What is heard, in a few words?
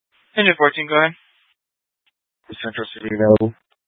Phx PD Fire Dispatch; K9 - FDCOM 9 - West; Phx Fire and Public Works; RWC